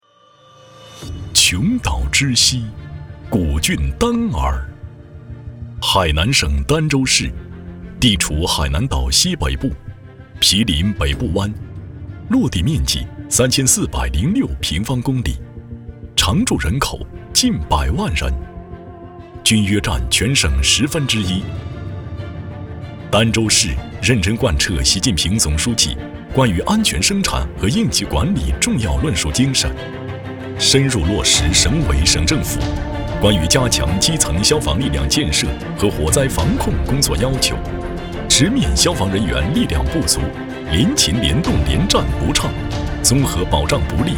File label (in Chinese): 男, 政府汇报类; 儋州消防